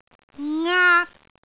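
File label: "ngaak1", Cantonese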